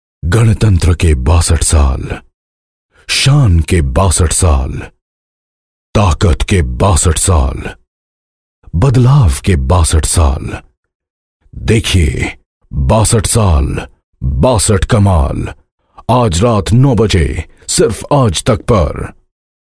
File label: Hindi, male voice